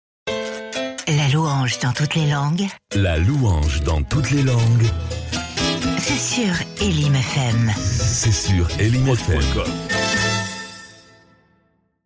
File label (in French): Sweep Louange Langues Mix; Elim fm